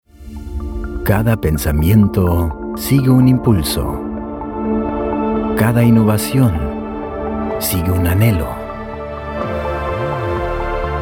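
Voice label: Commercial, Spanish; Demo Aquos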